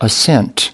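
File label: "ascent", US English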